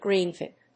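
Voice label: "greenfinch", English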